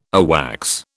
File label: "AWACS", British